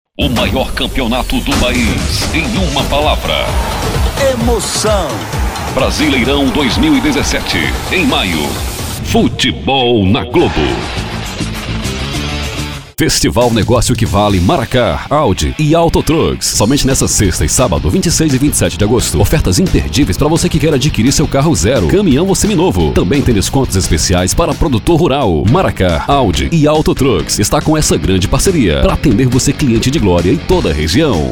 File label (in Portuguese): demo locuções